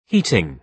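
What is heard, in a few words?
"heating", British English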